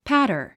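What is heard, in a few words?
"patter", English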